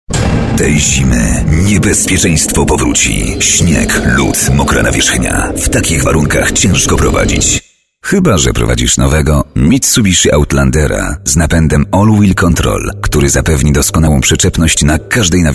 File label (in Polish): REKLAMA